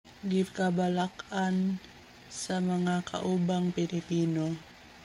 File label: Cebuano; worried fellow Filipinos - gikabalak-an sa mga kaubang Pilipino